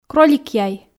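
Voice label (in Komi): кролик яй, Komi, female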